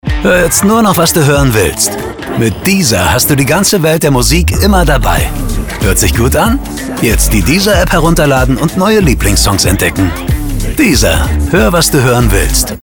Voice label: Full Mix, Commercial (Werbung), German; deezer

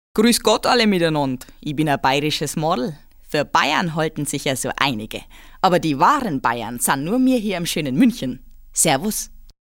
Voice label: dialekt; LOFT VOICES

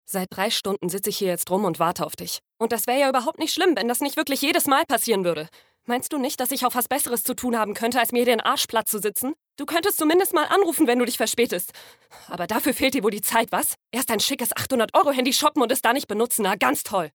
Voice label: Verärgert Traurig

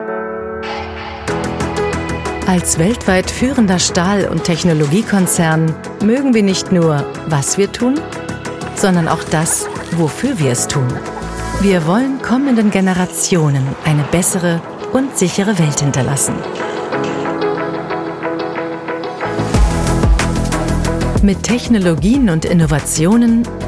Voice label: Imagefilm; Voestalp

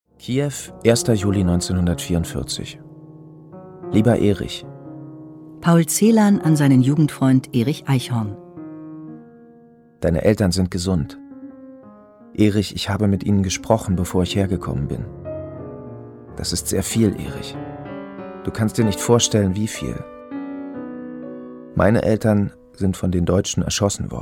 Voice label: Historisch, Audio Drama (Hörspiel), German; Brief Paul Celan (historisch); LOFT VOICES

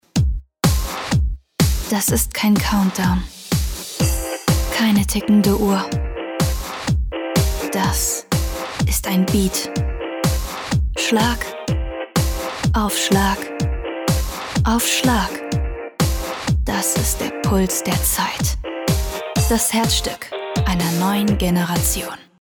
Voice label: Spot mit Musik

